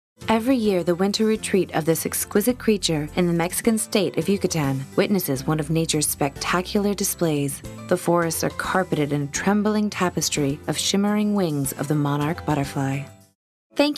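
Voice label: American, narration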